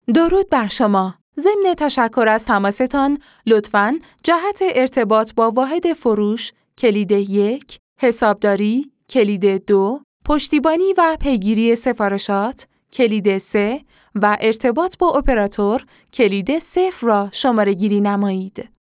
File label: Women